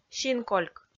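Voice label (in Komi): "синкольк", Komi, female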